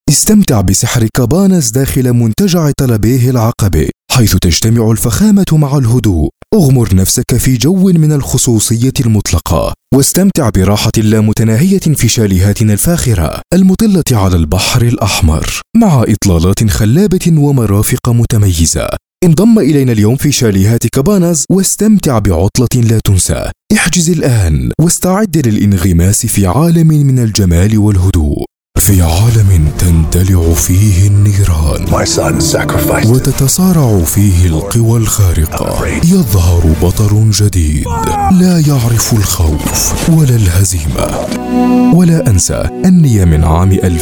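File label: Arabic, seslendirme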